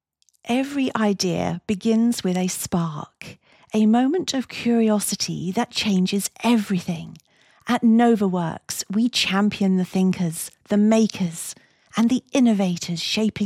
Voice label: INSPIRATIONAL NARRATION Corporate Storytelling, voiceovers